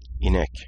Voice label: Cow in Turkish

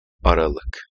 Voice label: December in Turkish

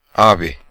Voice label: Older Brother in Turkish

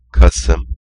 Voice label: November in Turkish

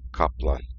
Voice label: Tiger in Turkish

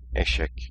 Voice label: Donkey in Turkish

